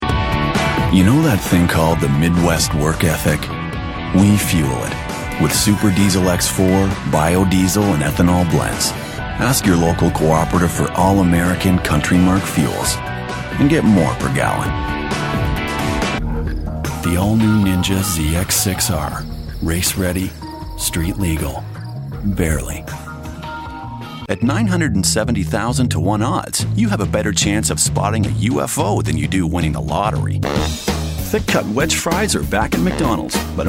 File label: Commercials